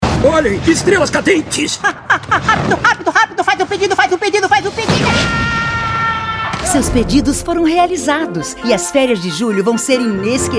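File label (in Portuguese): Português - Brasileiro, VAREJO, Voz Varejo, Feminino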